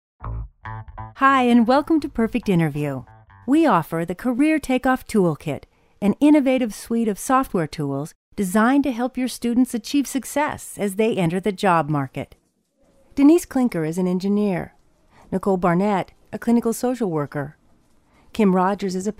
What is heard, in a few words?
narration elearning